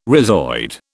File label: "rhizoid", British